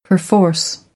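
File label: "perforce", American English; AmericanBritish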